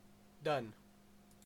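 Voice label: "done", Canadian English; pronunciations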